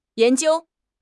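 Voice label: "yan2 jiu1", Mandarin Chinese; HSK4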